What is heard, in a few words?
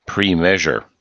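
"premeasure", us English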